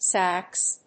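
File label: "sax", English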